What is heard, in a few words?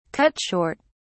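"cut short", British English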